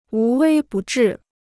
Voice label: "无微不至", Chinese